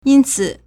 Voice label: "yin1 ci3", Chinese

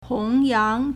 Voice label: "hong2 yang2", Chinese

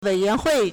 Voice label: "wei3yuan2hui", Chinese

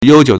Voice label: "you1 jiu3", Chinese

